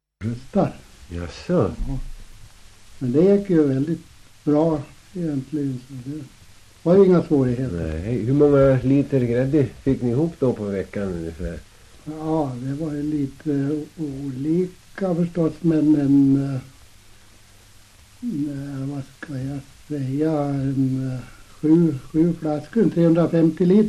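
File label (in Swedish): Västerås-Barkarö Västmanland, dialekter, svenska sagor; Folkminnen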